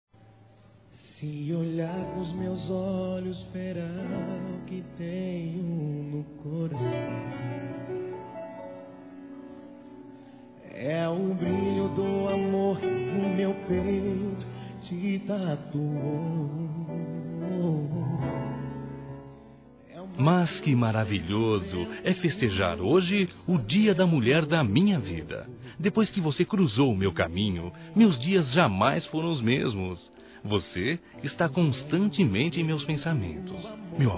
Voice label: ANIV. ROMANTICO M

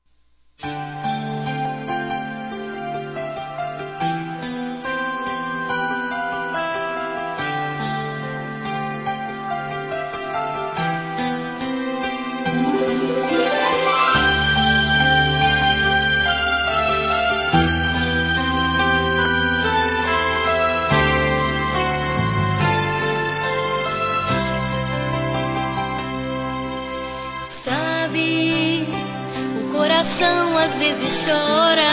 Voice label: Aniversário Tio Infantil Masculino; Telemensagens 2017; Aniversários

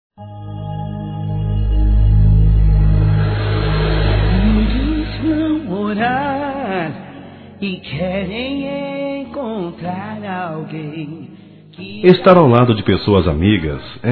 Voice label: Neutro Católico Masculino

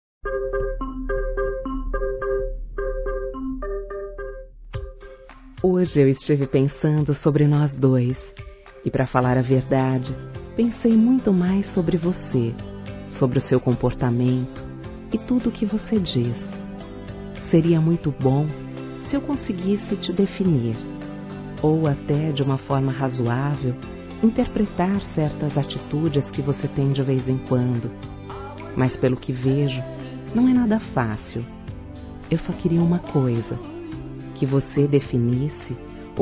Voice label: Romantica Feminino; Qual e a Sua; Telemensagens 2017; Românticas

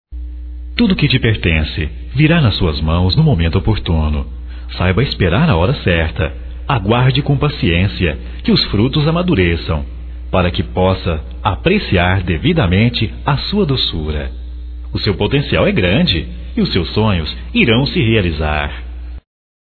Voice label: Otimismo Geral Masculino; Telemensagens 2017

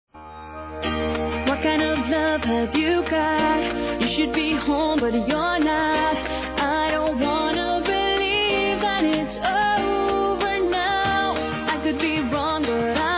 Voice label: NEUTRO PLURAL F; Aniversários; Aniversários diversos; fem

